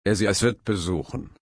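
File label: "besuchen", German, slow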